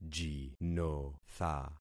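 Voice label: "jinotha", concatenated syllables; Affixing experiment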